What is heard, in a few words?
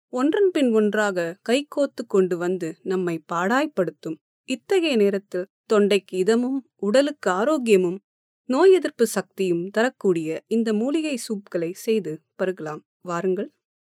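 Tamil Sri Lanka female voice over, narrative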